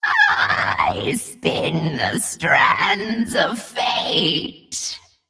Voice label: Female Nerubian Play

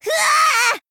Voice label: Female Goblin Attack

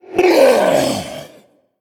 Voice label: Male Elemental Death

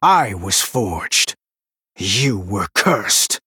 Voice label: Male Human; Anduin

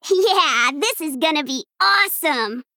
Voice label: Female Gnome Mirror Start

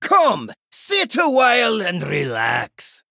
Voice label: Innkeeper Male Dwarf Greeting

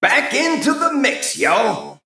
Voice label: Male Mech Shop To Combat

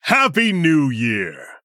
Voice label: Male Human HAPPY NEW YEAR

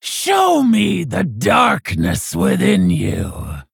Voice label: Female Orc; Hagatha

